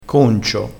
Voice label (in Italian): "concio", crespinese